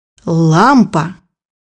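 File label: "lamp", Russian; bukvar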